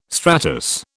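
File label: "stratus", British English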